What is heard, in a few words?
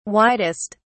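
"widest", British English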